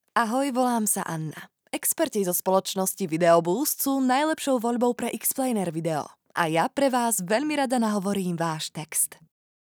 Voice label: Slovak